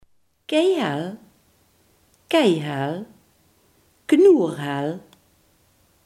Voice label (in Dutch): "keihard", Limburgs